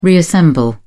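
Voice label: "reassemble", British English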